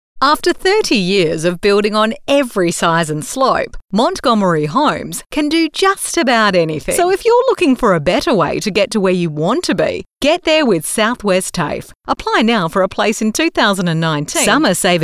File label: Soft Sell